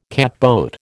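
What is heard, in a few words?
"catboat", American English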